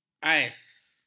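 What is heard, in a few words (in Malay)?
"ais", Malay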